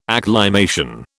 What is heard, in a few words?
"acclimation", British